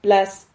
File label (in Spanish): "plas", Silabas